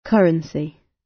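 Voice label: "currency", British English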